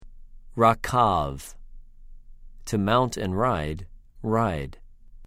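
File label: "רָכַב", Hebrew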